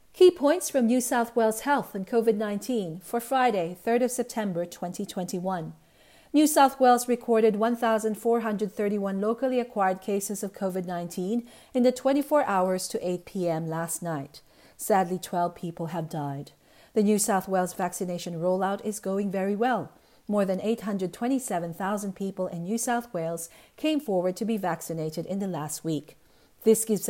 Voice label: english; covid-19 coronavirus; covid-19 daily key points